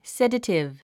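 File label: "sedative", English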